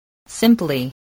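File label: "simply", spoken word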